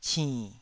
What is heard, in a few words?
"cinn3", Taiwanese